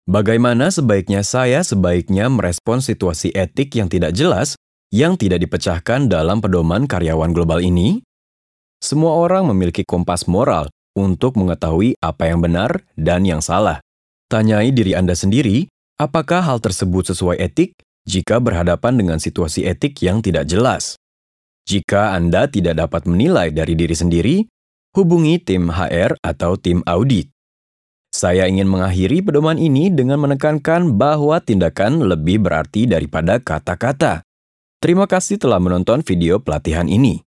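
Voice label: Indonesian, male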